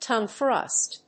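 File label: "tongue thrust", English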